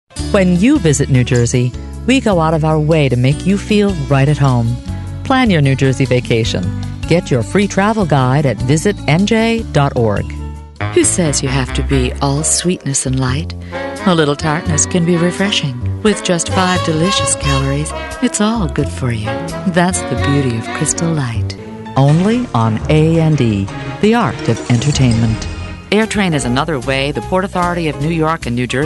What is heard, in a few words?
50s Female